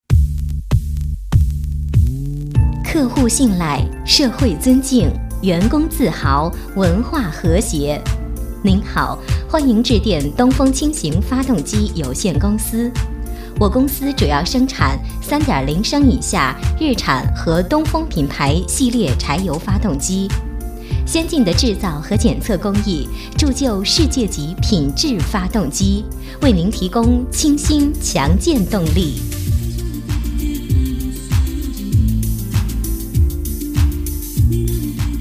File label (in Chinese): 彩铃; 东风; 东风彩铃